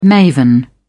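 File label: "maven", British English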